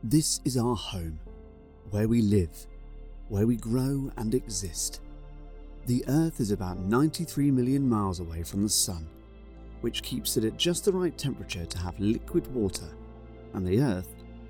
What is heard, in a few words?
Narrative and Character